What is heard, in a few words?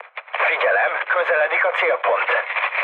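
Hungarian near waypoint mood med, speech; War Thunder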